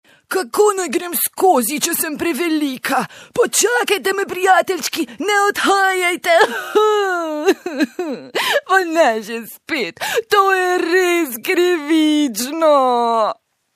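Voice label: slovenian female character; voice demos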